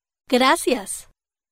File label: thank you spanish